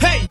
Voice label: "hey", voice